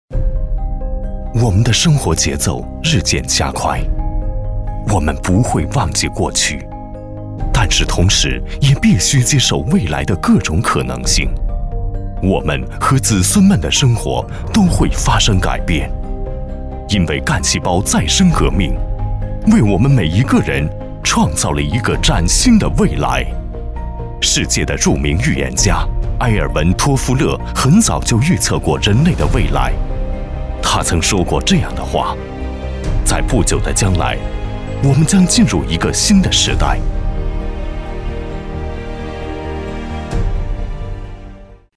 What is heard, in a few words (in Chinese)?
广告 未来健康的畅想